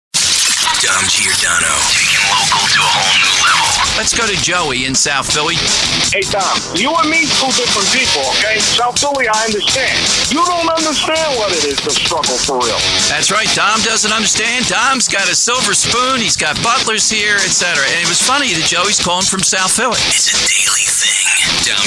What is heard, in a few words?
News Talk